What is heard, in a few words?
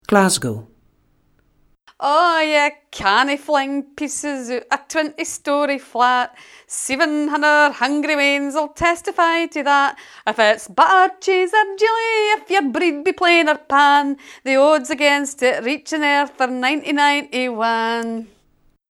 Glasgow, scotspeak